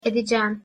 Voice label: "edecem", Turkish

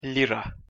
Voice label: "lira", Turkish

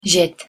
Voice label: "jet", Turkish

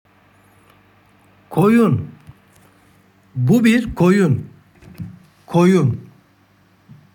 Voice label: "Koyun", Turkish; words for animals in turkish

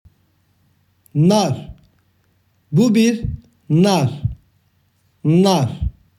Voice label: "nar", Turkish; words for fruits and vegetables in turkish

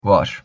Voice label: "var", Turkish

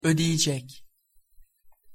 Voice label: "odiyicek", Turkish

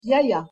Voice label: "yaya", Turkish

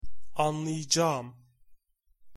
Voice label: "anlayacagim", Turkish

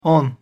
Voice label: "on", Turkish

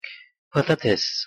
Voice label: "patates", Turkish

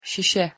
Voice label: "sise", Turkish

